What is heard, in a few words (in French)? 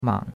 "mang3", chinois; Cours-de-Chinois